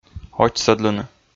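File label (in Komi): "очсӧдлыны", Komi, male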